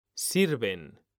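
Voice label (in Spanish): "sirven", male; mekanta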